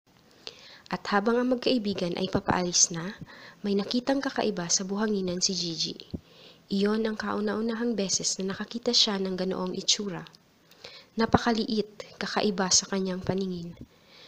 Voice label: reading Filipino